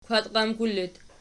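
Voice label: "kwkul" locative, Archi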